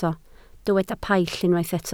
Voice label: "paill", Welsh

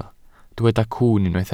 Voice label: "cwwn", Welsh

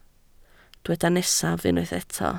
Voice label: "nesaf", Welsh

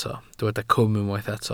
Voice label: "cwm", Welsh

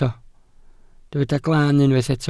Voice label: "glaan", welsh

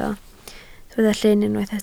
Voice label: "llyn", Welsh

